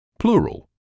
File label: "pleural", English